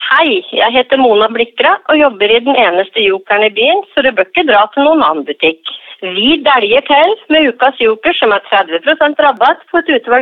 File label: radiospots